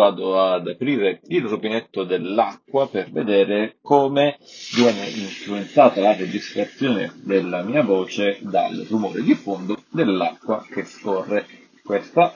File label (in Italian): Test audio casa WF-1000XM4